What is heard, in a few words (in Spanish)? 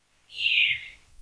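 Voice Acting es F, Spanish, female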